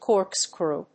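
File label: "corkscrew", English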